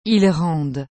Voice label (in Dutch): "ils rendent", frans; rendre